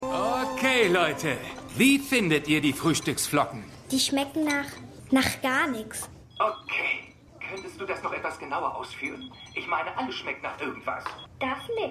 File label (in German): Produkttestleiter Maedchen; Stimmproben; Watchmen